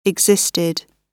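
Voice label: "existed", British English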